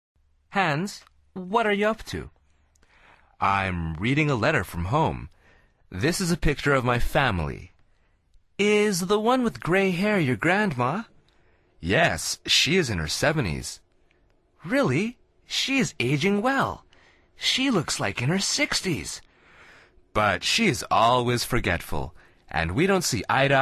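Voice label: dialog, Conversación en Ingles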